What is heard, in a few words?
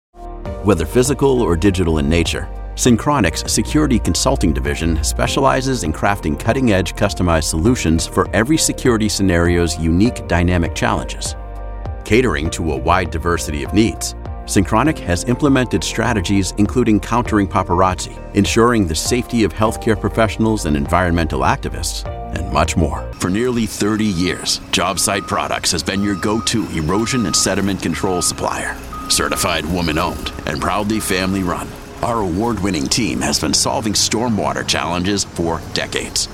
Corporate Narration